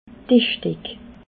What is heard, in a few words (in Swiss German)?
"dischdeck", alsace